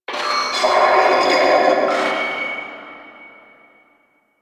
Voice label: Vocal Quest Loudspeaker, Town Escape